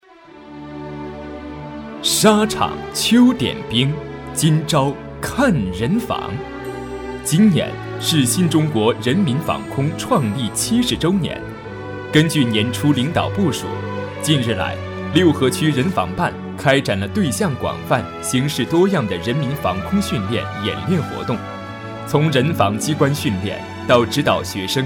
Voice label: 男 专题 大气澎湃; 人防办

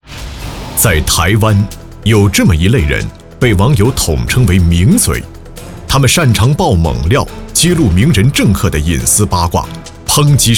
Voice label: 男 专题 法制节目严肃; 台湾企业

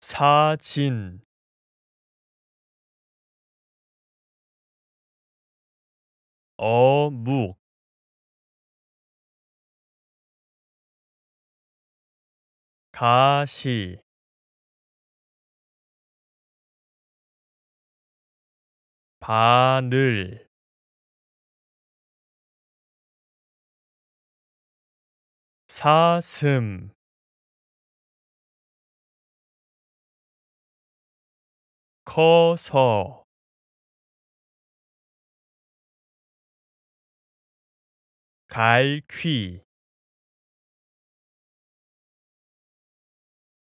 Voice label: 外籍韩语男 单词教学

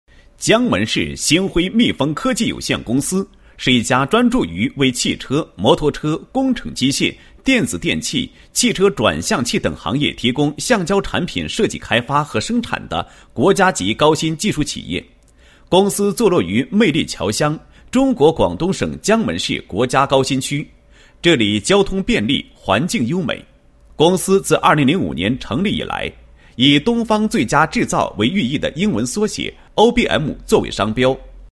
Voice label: 男 企业; 鑫辉科技有限公司

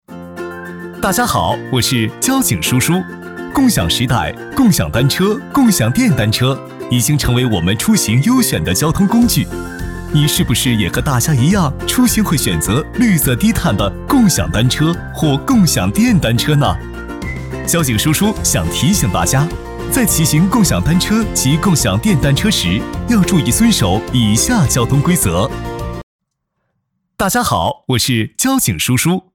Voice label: 男 MG 交警

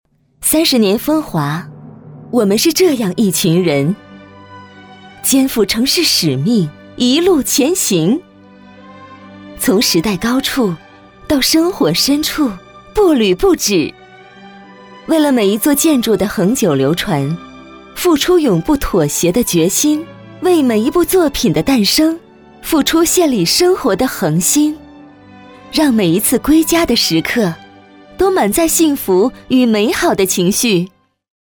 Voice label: 女 专题; 30年风华

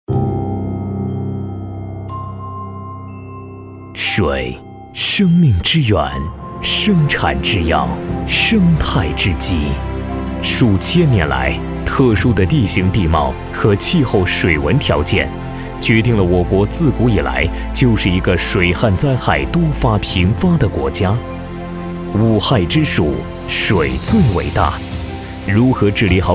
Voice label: 男 大气宣传 专题

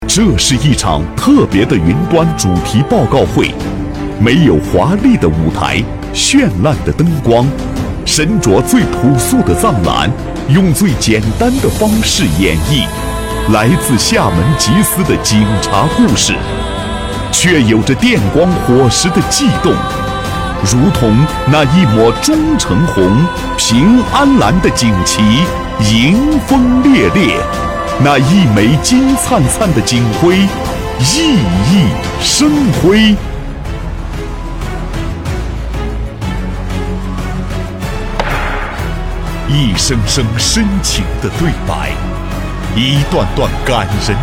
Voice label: 男; 人民警察节; 忠诚的道路

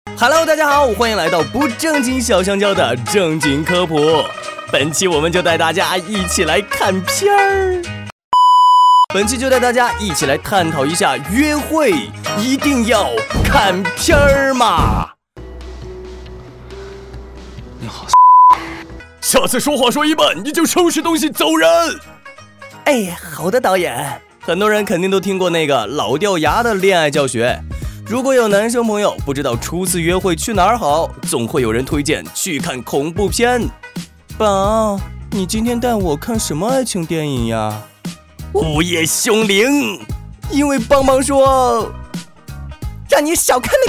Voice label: 男 抖音解说; 杰士邦官号小香蕉解说

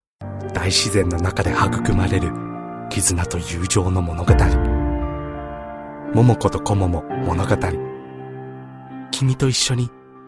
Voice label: 深情

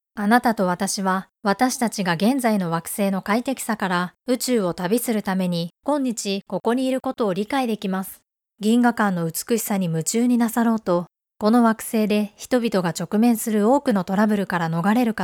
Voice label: 外籍日语女 干脆利落大方

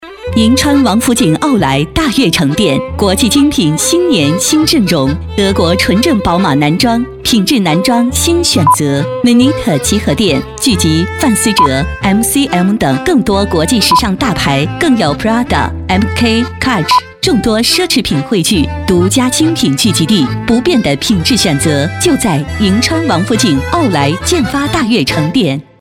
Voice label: 女 广告 知性; 王府井奥莱

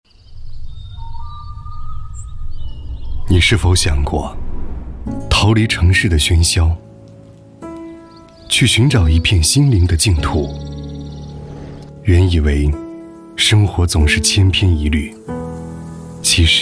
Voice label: 男 发现品牌; CCTV; A8空间

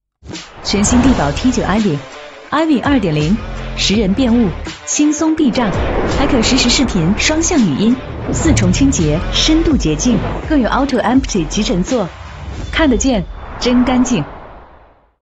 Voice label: 女 广告; 科沃斯地宝

